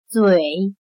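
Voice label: "嘴" Chinese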